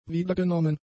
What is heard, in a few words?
"wiedergenommen", German; adjectives